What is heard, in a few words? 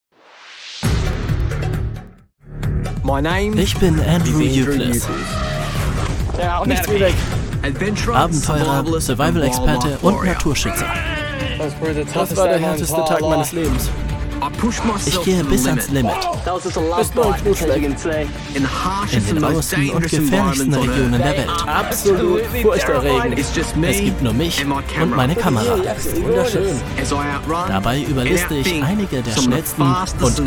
Voiceover